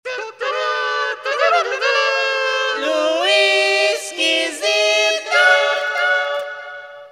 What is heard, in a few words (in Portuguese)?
VINHETA; os radiotas